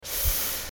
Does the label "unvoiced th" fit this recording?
Yes